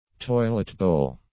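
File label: "toilet bowl", English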